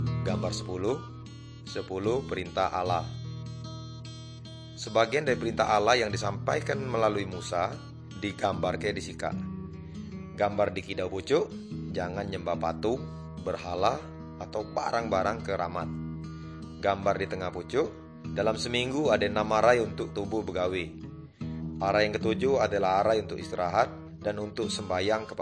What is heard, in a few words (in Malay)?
good news melayu tanjung raja